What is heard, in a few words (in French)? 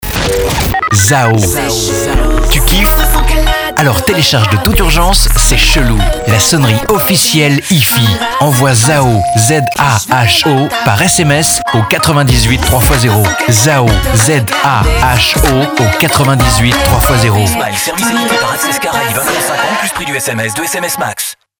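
spot, spots radio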